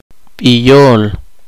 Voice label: poqomchi